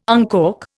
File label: "uncork", Indian